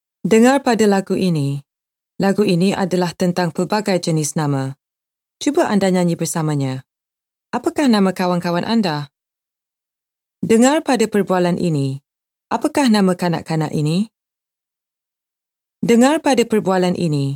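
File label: Bahasa Malay, female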